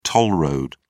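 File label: "toll road", British English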